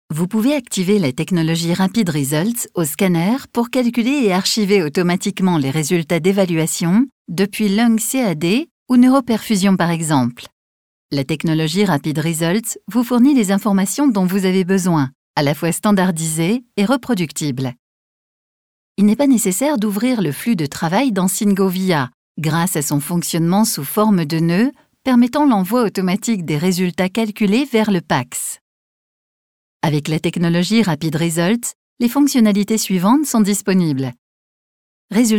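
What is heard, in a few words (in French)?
elearning medical, voix off femme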